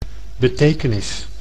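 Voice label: "betekenis", Dutch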